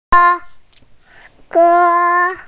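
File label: "ge1", Mandarin Chinese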